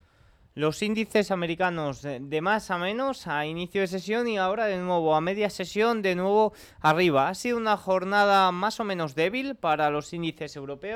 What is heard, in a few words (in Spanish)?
radio; noticias bolsa